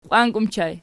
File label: "qiwanki", Archi